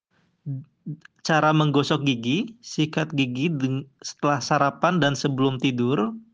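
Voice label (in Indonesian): "Dua", Indonesian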